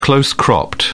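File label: "close cropped", British English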